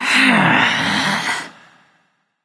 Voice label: thinking; Smite; athena